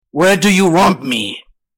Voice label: strong guy says 'Whe